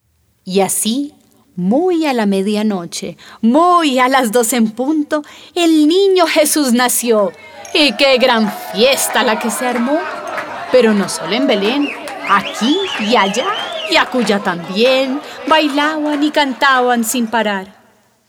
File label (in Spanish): Narración; ¡El Niño Jesús Nació